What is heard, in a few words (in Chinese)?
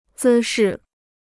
"姿势", Chinese